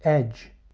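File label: "edge", English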